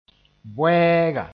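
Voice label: "boɛɛga", Mooré; he goat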